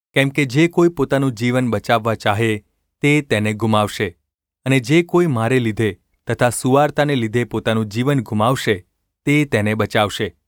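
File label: gu ulb mrk, Gujarati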